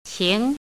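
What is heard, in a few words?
"qing", pinyin, second tone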